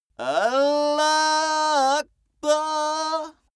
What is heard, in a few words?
male pray arabian